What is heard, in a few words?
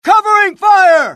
suppress, dialogue, US; mohaa